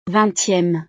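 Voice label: "vingtieme", French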